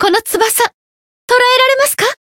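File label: Voice Japanese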